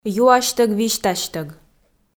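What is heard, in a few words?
"юасьтӧг-висьтасьтӧг", Komi, female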